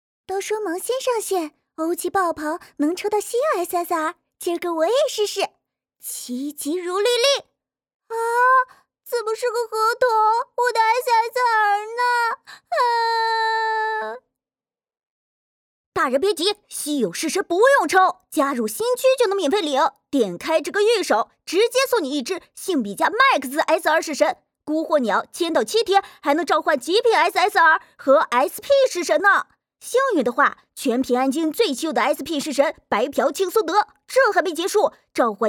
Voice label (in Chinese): 信息流萌小兔